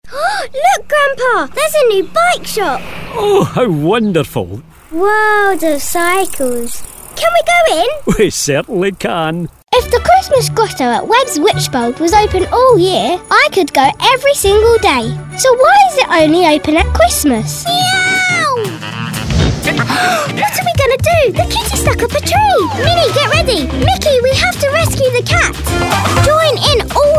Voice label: Kidztalk